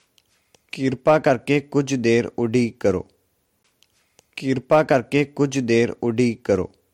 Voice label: Please wait for a while, punjabi